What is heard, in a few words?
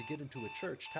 noisy voice alarm; Speech-enhancement